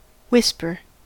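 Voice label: "whisper" English, US; pronunciations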